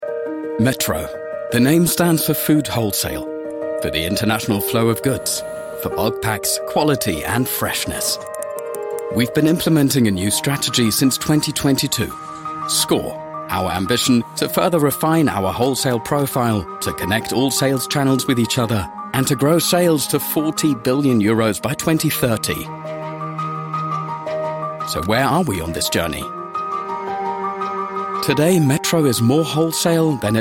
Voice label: ENGLISCH, NATIVE UK - klar, freundlich, Commercial (Werbung); Food Distribution; English UK; METRO Food Distribution; METRO AG sCore